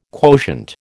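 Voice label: "quotient", American English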